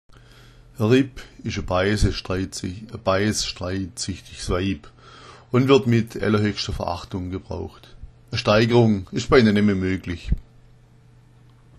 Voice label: "ripp", schwaebisch

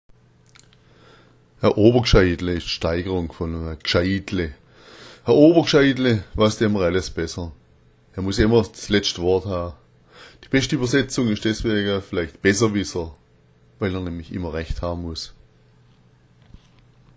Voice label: obergscheidle, schwaebisch

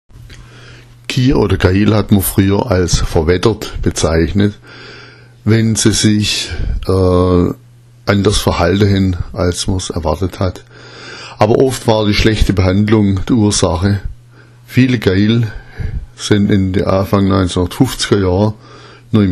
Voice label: verwettert, schwaebisch

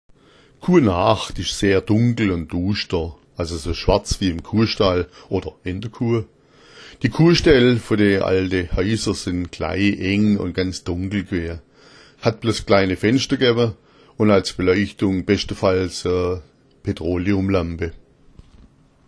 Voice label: kuanaacht, schwaebisch

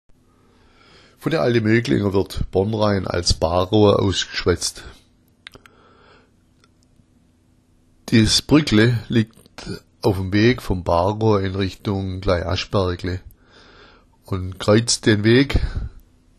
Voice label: bornrain, schwaebisch

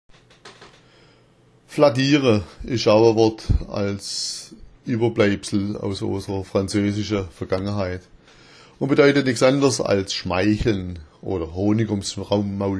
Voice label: fladdiera, schwaebisch

